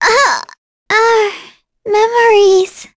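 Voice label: Vox Dead, voices heroes English; Lavril; kingsraid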